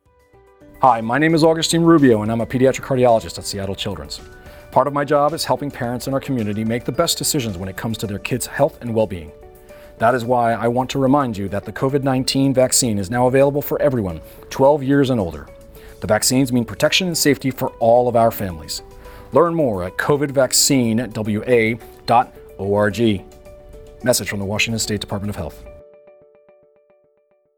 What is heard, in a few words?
Radio ENG; COVID-19 vaccine communications toolkit